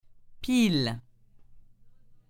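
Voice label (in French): "pile", French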